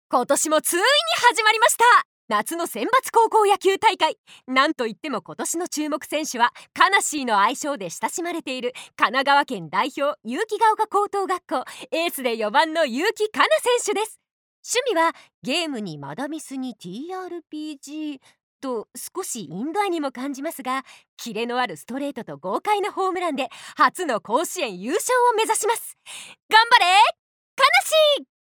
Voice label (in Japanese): ナレーション